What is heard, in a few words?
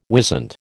"wisent", American